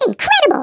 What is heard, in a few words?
"Amazing", American; Speech; International